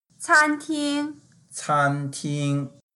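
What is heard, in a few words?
"can1ting1", Mandarin Chinese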